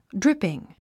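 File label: "dripping", English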